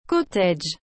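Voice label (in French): "cottage", French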